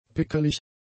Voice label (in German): "pickelig", German; adjectives